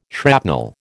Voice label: "shrapnel", American